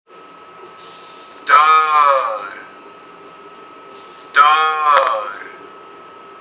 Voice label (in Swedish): "Dör", swedish